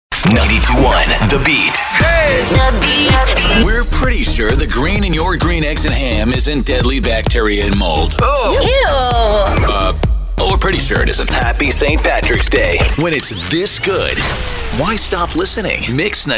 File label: Radio